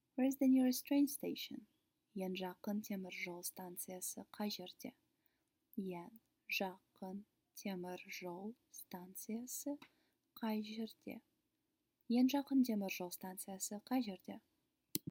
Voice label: Where is the nearest train station, kazakh